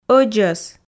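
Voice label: "ӧдзӧс", Komi, female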